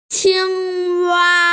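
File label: "qing1wa1", Mandarin Chinese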